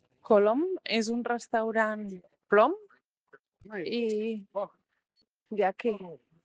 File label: Voice message